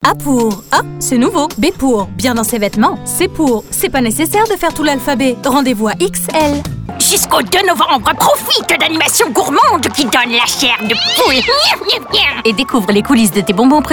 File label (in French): medley publicite